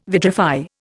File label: "vitrify", Indian